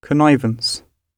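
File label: "connivance", British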